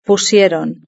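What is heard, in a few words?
"pusieron" Spanish; verb-conjugator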